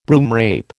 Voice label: "broomrape", American